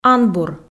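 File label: "анбур", Komi, female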